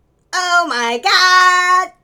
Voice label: Male Reactions